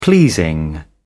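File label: "pleasing", British English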